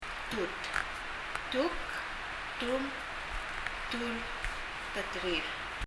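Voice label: "tut" Palauan, breast; 胸/乳房; 発音; 身体の各部位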